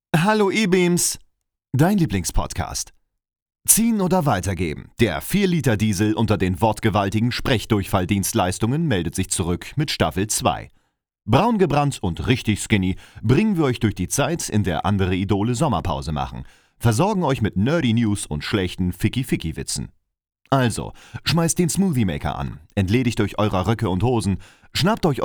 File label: Podcast Intro